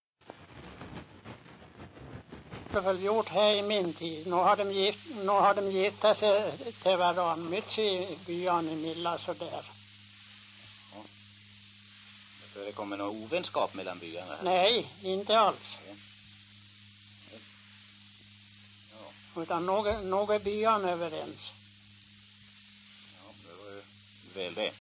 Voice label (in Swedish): dialekter; Folkminnen; Svenska sagor